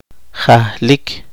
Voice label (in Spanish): transformar, poqomchi